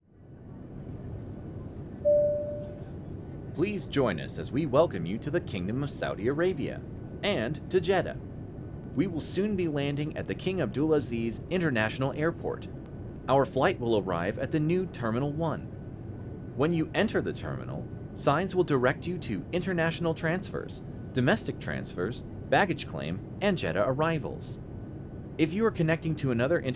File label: Airline Announcement; Demo Clip